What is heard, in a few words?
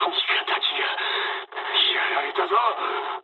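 aircraft destroyed mood med, speech japanese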